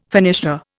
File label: "finisher", Indian